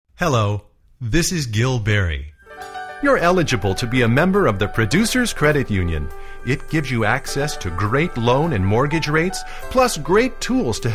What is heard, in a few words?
Commercial, Male voices